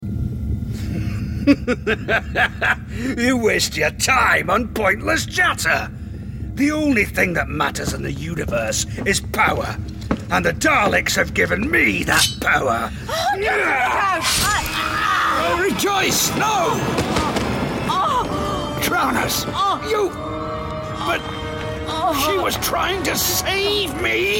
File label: Radio Drama Example; Dr Who